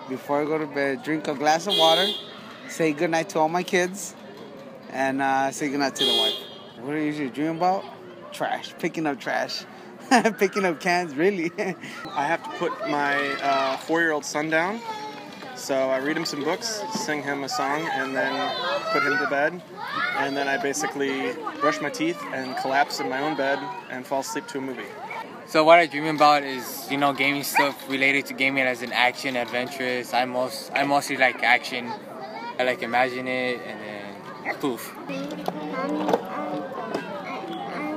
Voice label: Vox Pops Dreams